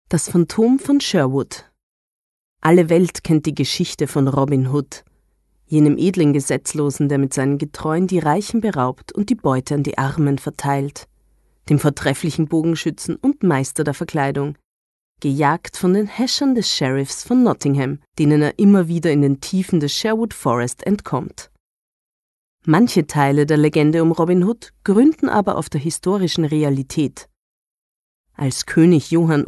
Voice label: Hoerbuch; Robin Hood; Sprecherdatenbank

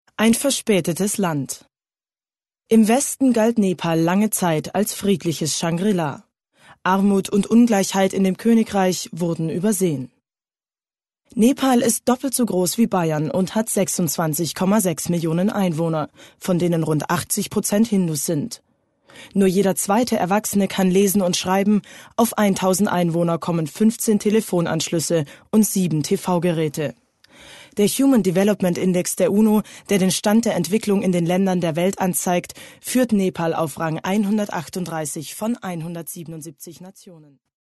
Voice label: Doku; Sprecherdatenbank

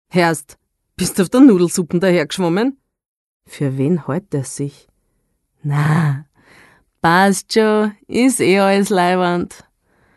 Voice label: Dialekt; Sprecherdatenbank

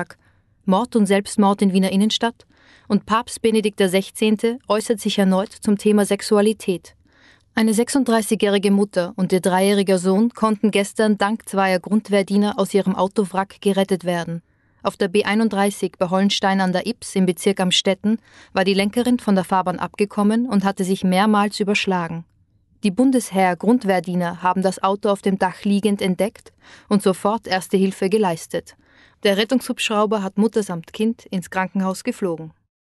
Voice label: nachrichten; Sprecherdatenbank

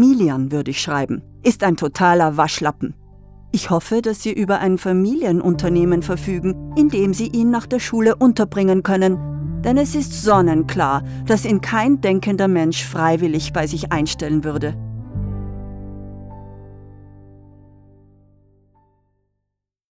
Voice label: Prosa Eltern Kinder Verblendung

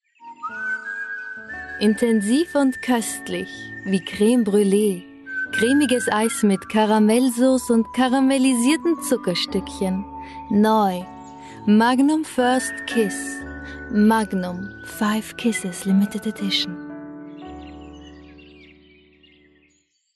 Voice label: werbung eis; magnum; Sprecherdatenbank

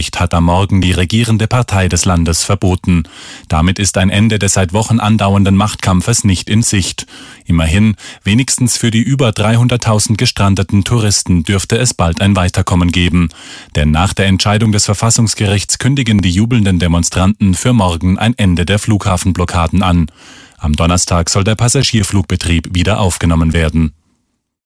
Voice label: nachrichten; Sprecherdatenbank

